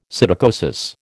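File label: "psittacosis", American English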